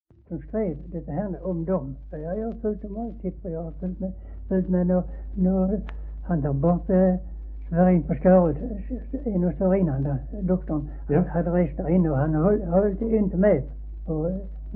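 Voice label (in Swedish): Höör Skåne, Svenska sagor dialekter; Folkminnen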